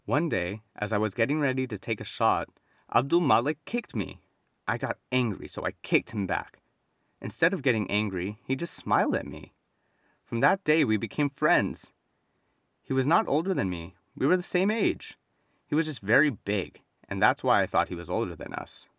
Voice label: Reading